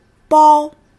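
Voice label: "bāo", Chinese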